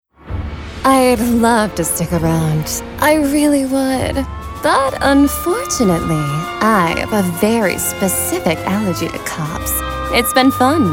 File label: vo animation